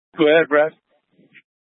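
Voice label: Incident Tactical, scanner audio recording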